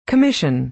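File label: "commission", American English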